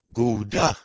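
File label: "ghodheh2", Proto-Indo-European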